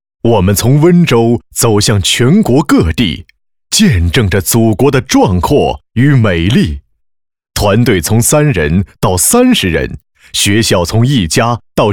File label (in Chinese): 宣传片试音; 一周年宣传片试音